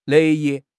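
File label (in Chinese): "乐意", Chinese; HSK6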